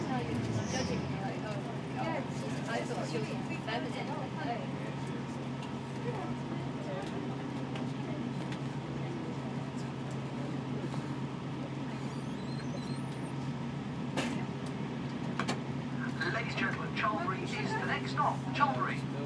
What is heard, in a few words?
enthusiastic train announcements; on my way to reading